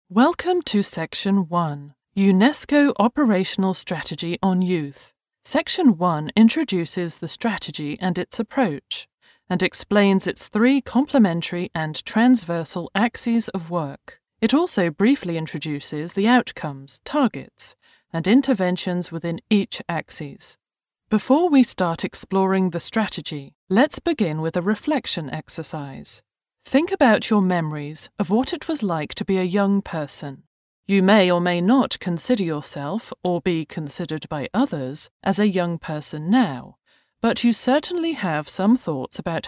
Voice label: english british e-learning

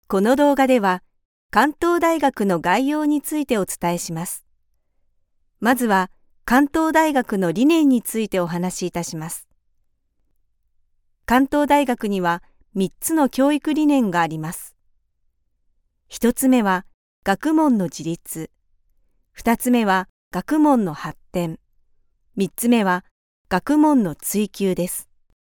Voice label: japanese explainer videos

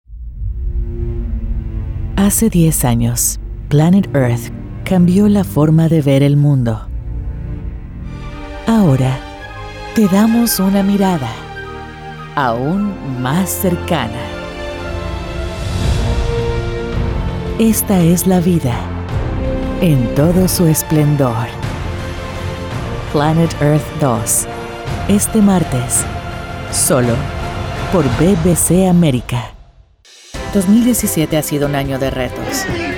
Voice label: Spanish Latin American promos

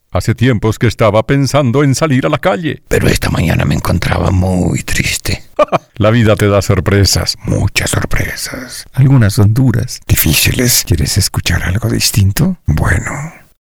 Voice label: spanish colombian animation

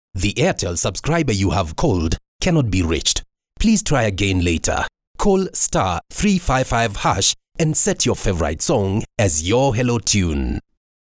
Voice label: english african ivr

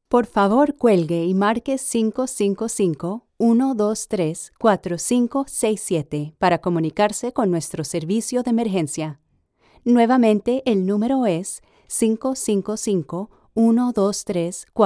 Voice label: spanish colombian ivr

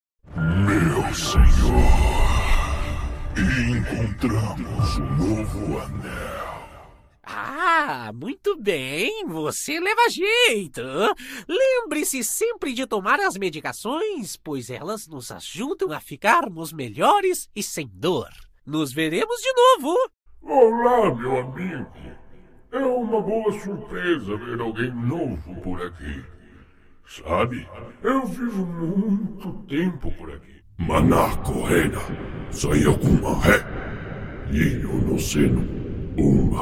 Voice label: portuguese brazilian video games

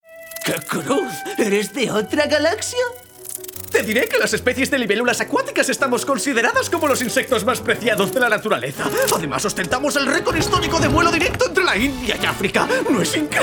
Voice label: spanish animation

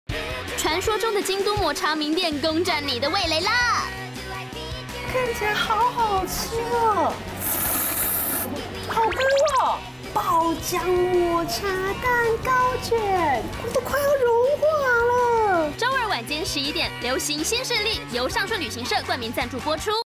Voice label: Taiwanese promos; Taiwanese Mandarin speaker

